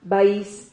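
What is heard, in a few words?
"bais", Spanish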